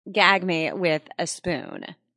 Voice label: Wmn Sys Gag Me Spn, Woman Says Gag Me With The Spoon; A teen girl says; Talking Sound Effects